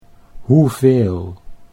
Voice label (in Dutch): "hoeveel", Dutch